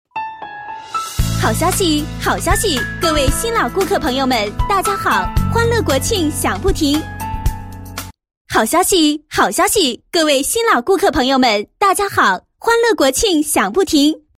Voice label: 女, 好消息; 欢乐国庆享不停

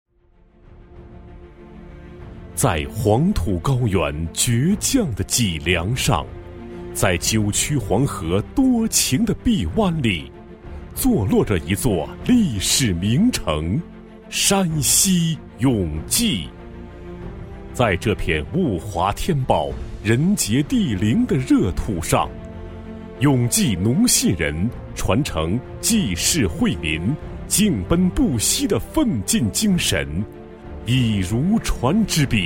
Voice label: 男 专题 农信宣传, 国语男声配音; 永济; 磁性

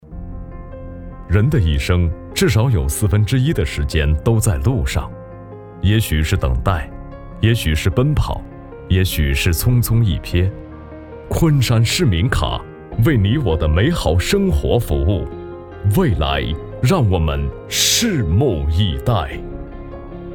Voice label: 男 广告; 昆山市民卡

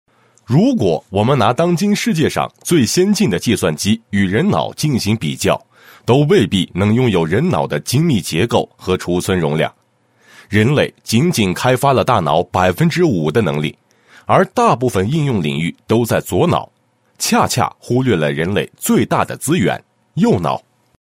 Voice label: 男 解说 宣传片解说; 道蒙开智宣传片解说

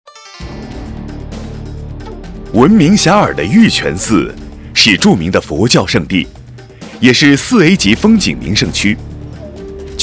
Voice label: 男, 水利风景区专题; 玉泉

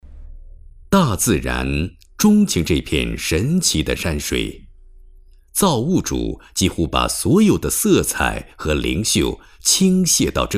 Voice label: 国语男声配音 抒情舒缓; 经济配音

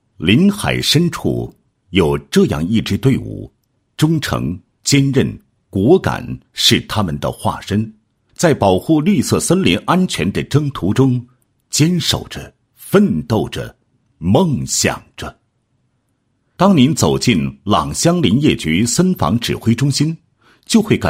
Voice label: 男 解说专题 解说; 朗乡林业局森防指挥中心

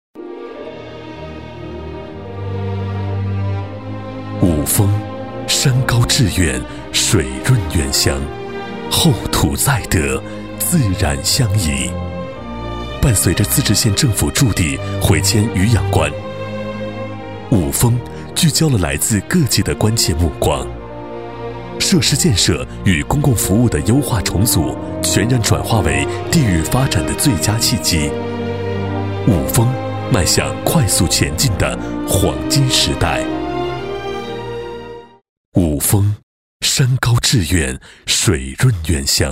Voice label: 男60 专题 城市宣传片; 五峰城市宣传片; 国语男声配音; 交广主播

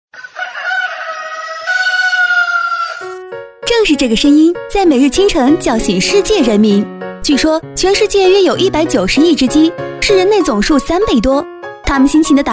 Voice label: 女; 国语女声配音; 飞碟说

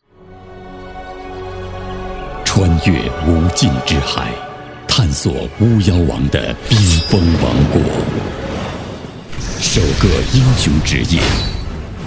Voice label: 男89-游戏预告, 国语男声配音; 巫妖王音频版; 央视

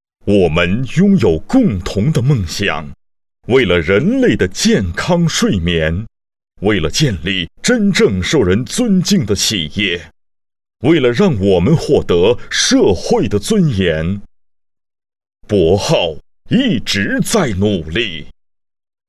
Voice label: 男 科技 专题; 帛浩科技

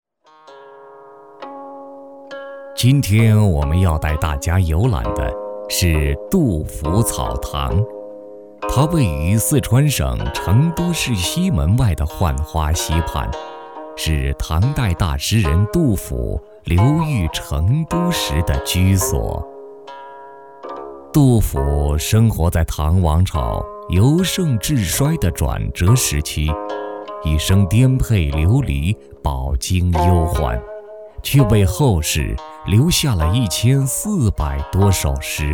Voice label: 男 旅游景区介绍 磁性 沉稳; 杜甫草堂; 央视老师

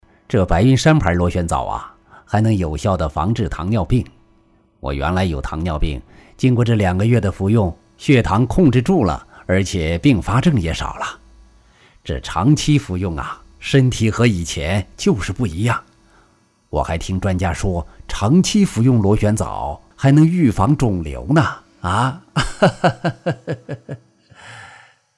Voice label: 男 螺旋藻, 国语男声配音; 大气专题; 男97大气专题

